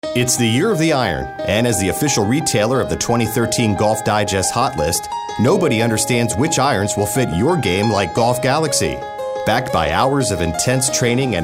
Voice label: informative

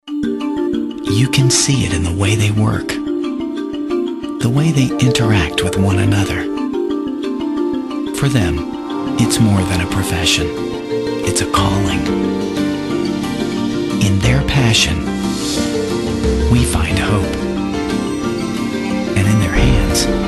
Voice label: caring

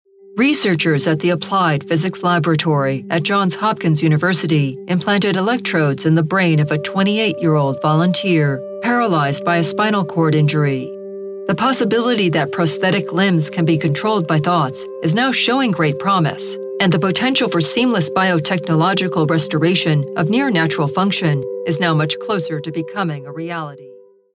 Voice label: Elearning Narr

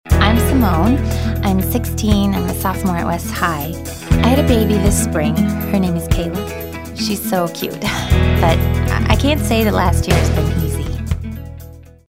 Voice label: teen

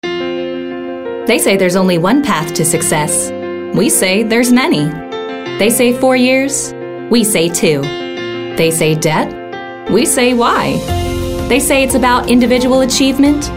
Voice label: Upbeat

